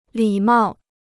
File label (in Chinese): "礼貌", Chinese